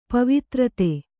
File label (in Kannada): "ಪವಿತ್ರತೆ", Kannada